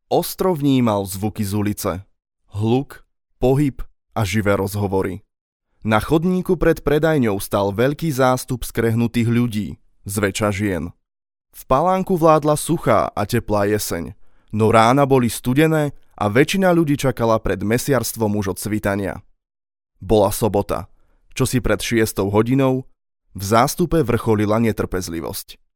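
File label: Slovak, male, narration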